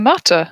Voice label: "mata" longer